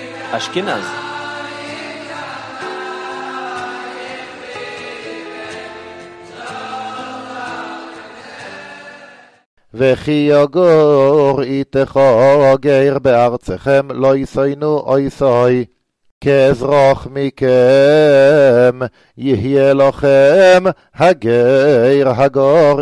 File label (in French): Paracha Kedochim 4eme montee Ashkenaze; vayikra levitique